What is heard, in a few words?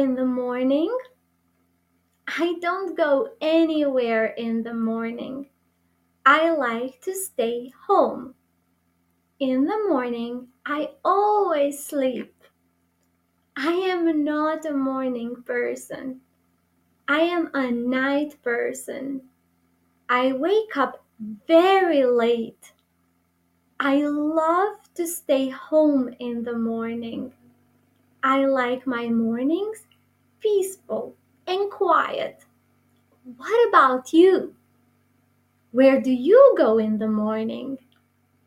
conversation with accent from Kosovo; “Where do you go in the morning?”; Morning actions